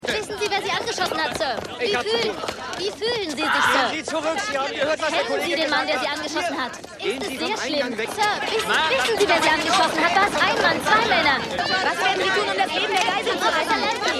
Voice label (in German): Reporterin Reporterin; Mad City